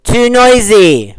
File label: too noisy